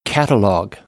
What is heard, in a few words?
"catalogue", American; English